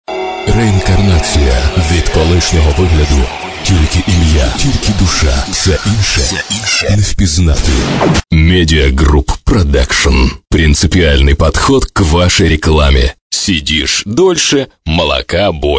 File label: voice male